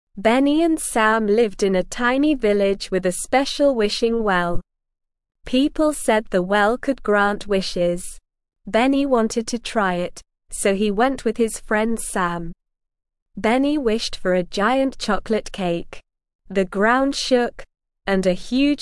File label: ESL Short Stories for Kids Beginner SLOW Reading; The Strange Case of the Wishing Well